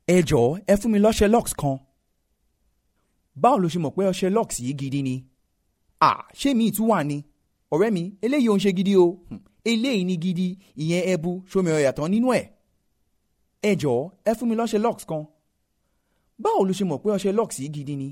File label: Yoruba General